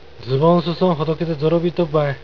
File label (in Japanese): ズボンん裾んほどけてぞろびーとっばい。, 有田弁; ズボンの裾がほころびて引きずっているよ。; ぞろびく; 引きずる